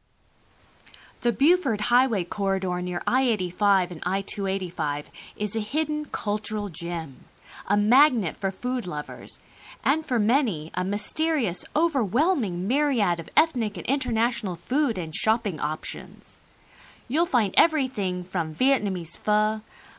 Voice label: voice promo; New Buford Hwy

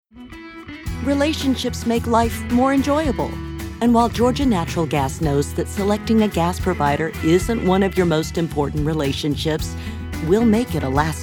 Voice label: Voice Over

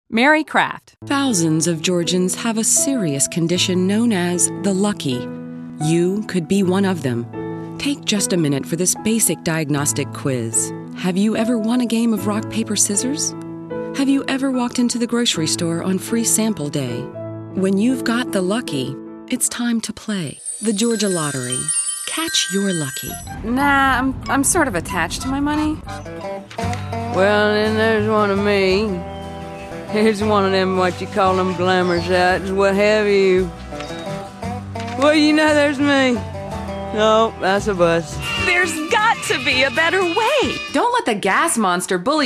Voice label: Commercial VO

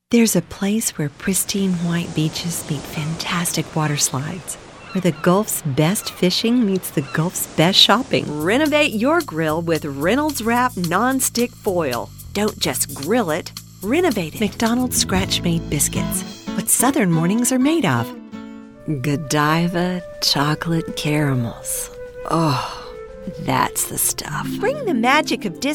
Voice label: Commercial

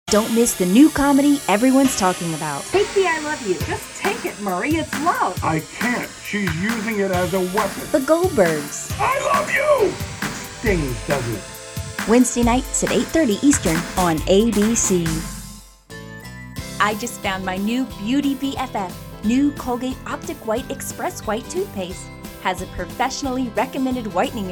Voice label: Commercial Voice Over

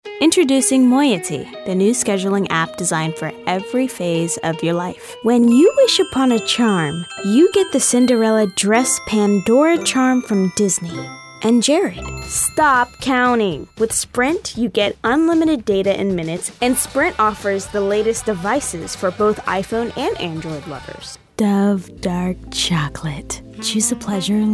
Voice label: Commercial

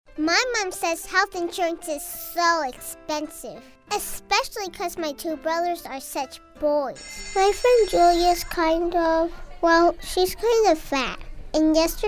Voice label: Commercial

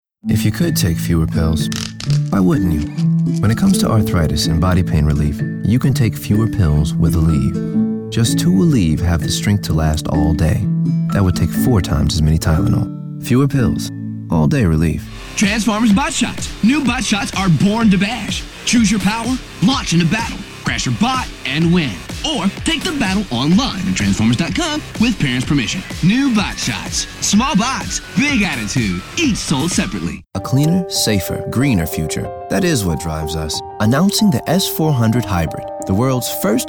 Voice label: Commercial VO

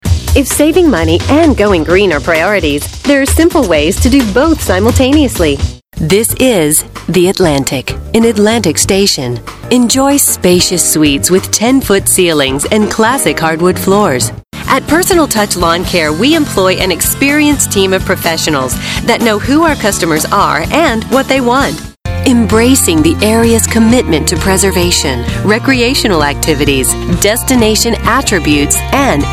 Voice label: Narration

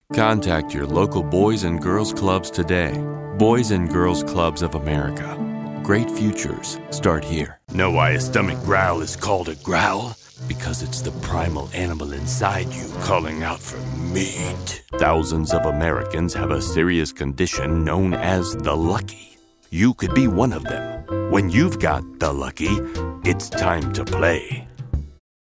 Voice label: COMMERCIAL VO

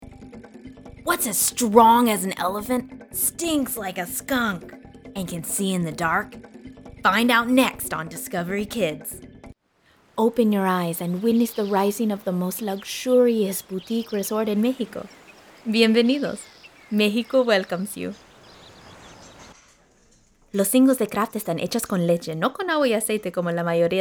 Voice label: Commercial VO

